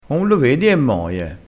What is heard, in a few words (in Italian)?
"moie", dialettale crespinese